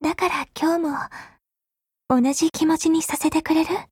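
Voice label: Japanese; Groza; Dreamscape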